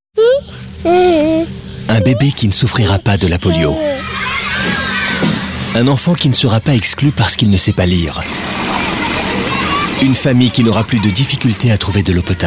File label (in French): spot radio; Rotary; Campagne de communication 2013